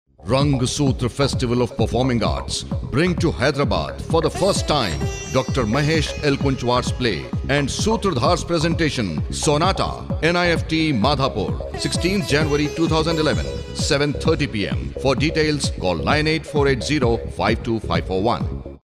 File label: male urdu voice over talent english